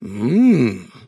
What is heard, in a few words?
happy; davion; dota; dragon knight